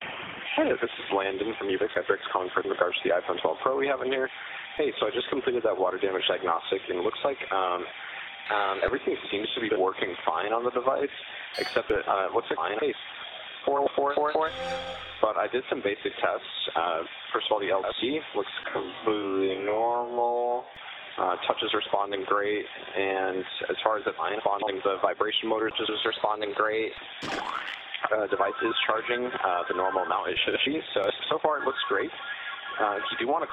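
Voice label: voice male, no eq and mono downmix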